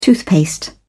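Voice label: "toothpaste", British English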